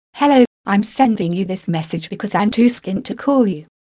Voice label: Female

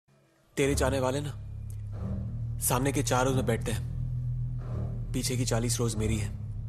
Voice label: Dirty Dialogue

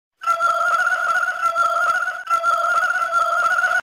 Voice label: Indian Women Message

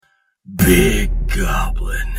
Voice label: Big Goblin

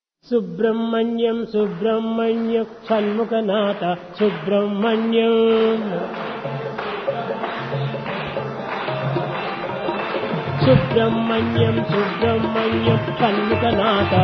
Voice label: Voice Of God

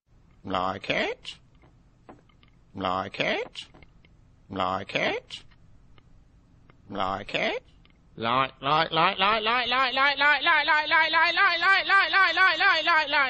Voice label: Parrot Tv Ad; Aldi

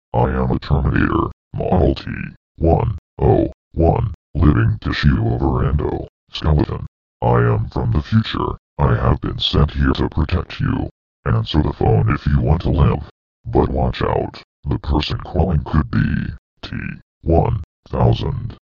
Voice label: Terminator Voice

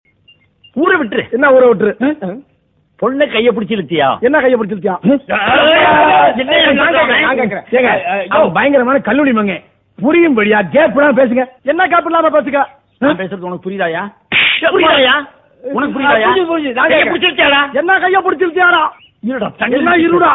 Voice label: Comedy; Vadivelu

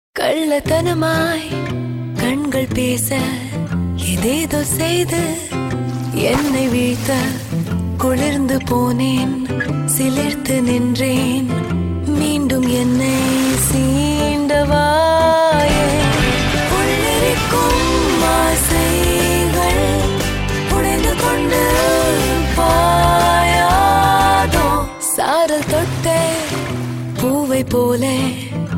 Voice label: Tamil; Limca Ad

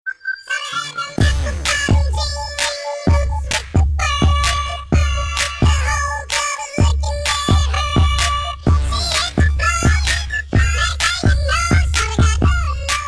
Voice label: Low chipmunks

